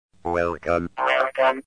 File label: speakjet vocoder; PSIM-1